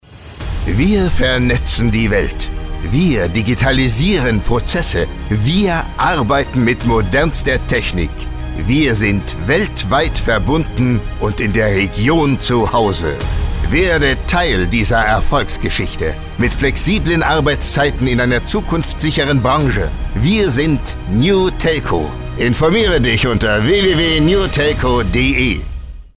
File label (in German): Werbung, Funkwerbung Recruiting, Commercial (Werbung), German; Newtelco